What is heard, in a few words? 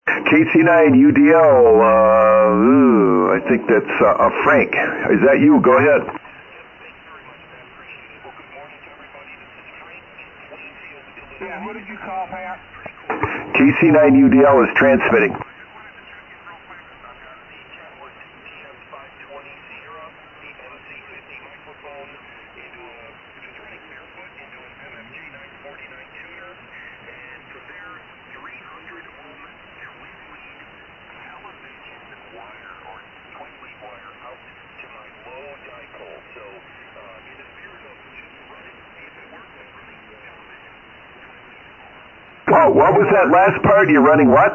Kenwood TS-520S & MC-50 Mic; Plainfield, IL.